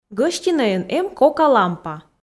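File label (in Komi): "гӧстинӧйын эм кока лампа", Komi, female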